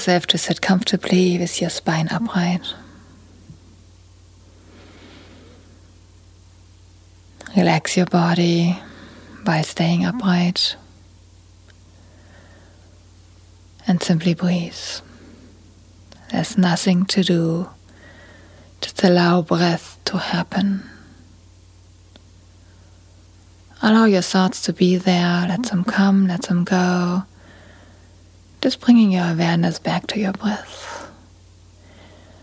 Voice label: Morning Sitting Meditation